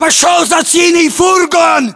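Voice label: order cover van blue, battlechatter, Russian; localized english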